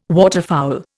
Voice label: "waterfowl", Indian